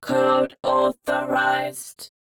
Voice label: "Code Authorised", Sci-Fi Voices; 344 Audio